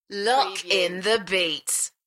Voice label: UK female; beanstalk audio